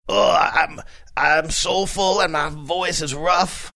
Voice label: saying oh im soulful and my voice is rough soulful